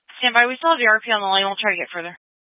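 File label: Fire Dispatch, Scanner audio recording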